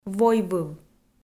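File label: "войвыв", Komi, female; audio dict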